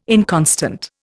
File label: "inconstant", Indian